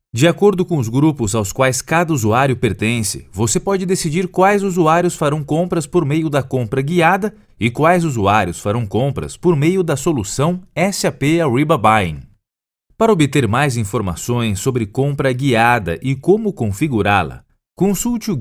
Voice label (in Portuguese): Portuguese Brazil